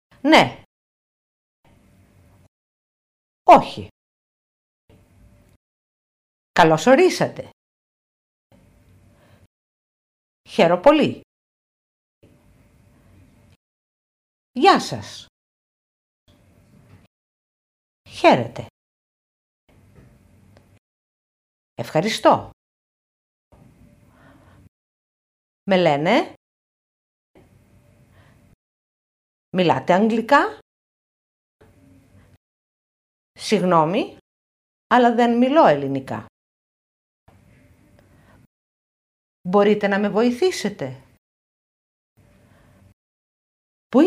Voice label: Greek

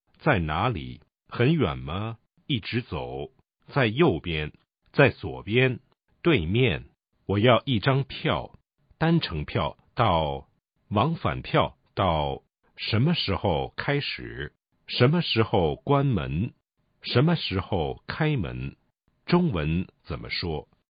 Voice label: Chinese

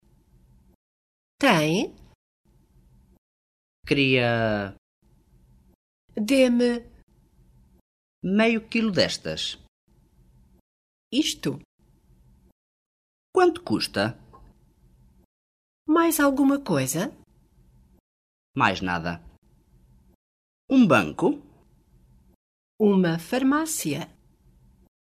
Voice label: Portugese

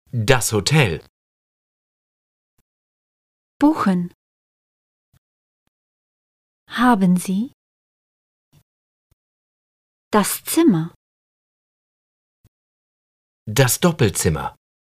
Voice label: German

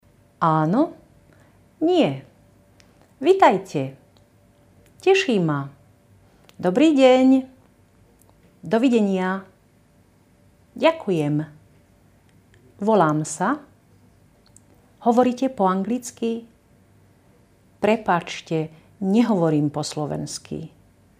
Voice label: Slovakian

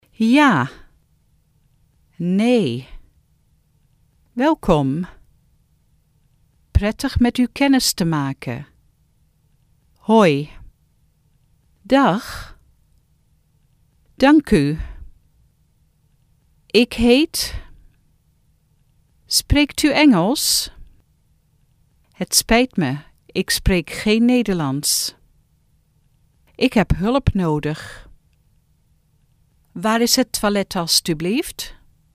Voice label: Dutch

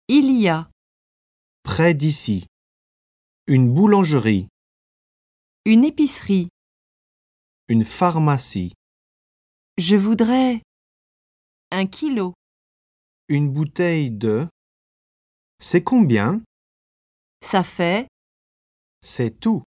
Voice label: French

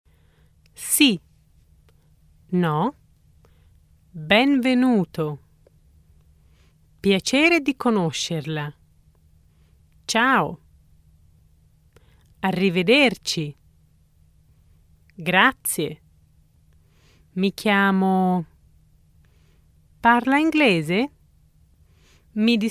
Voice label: Italian

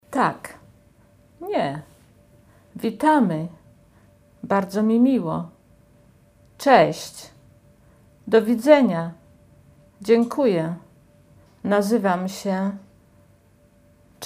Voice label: Polish

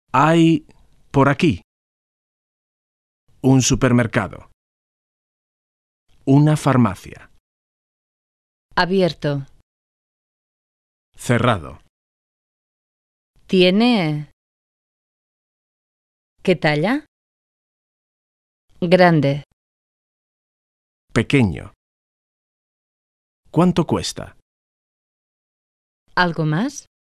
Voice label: Spanish

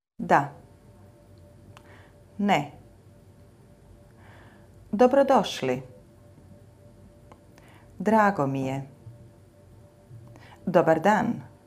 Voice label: Croatian

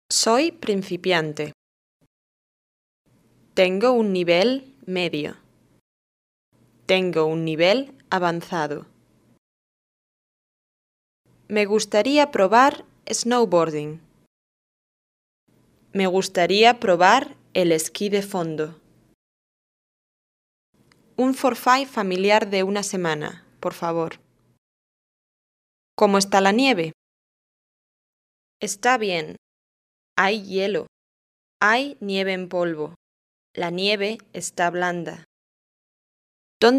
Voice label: Spanish

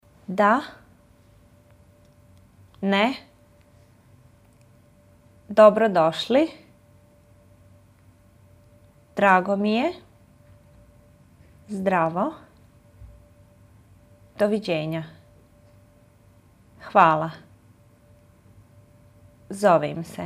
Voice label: Bosnian

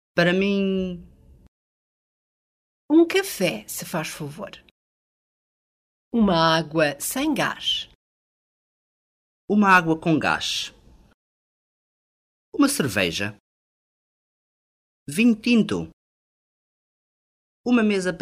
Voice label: Portugese

